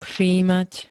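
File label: "všímať", Slovak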